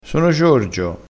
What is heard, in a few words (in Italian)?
"chie", crespinese